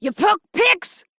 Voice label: Attack, Female

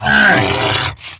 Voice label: Pain Grineer Wolf, en; Battle Barks

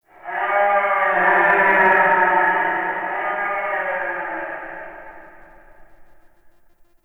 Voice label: Sentient Lair Spirit Chatter, Ambience; Warframe

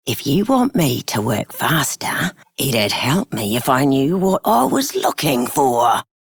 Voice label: Female Worker; Dialog; Warframe; Solaris Venus

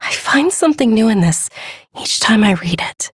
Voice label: Ambient Female, Dialog; Duviri

